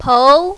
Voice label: "pou2", mandarin, female

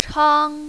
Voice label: "chang1", mandarin, female

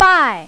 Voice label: "bai4", mandarin, female

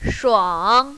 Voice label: "shuang3", mandarin, female

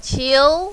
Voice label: "qiu2", mandarin, female

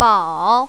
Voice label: "bao3", mandarin, female

